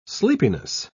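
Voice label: "sleepiness", British English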